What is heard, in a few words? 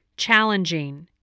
"challenging", spelling